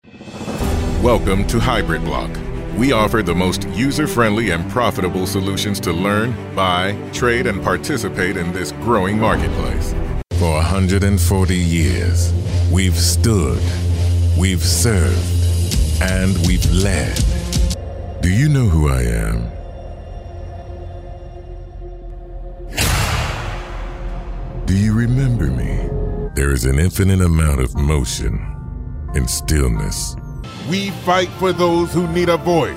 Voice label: Narrative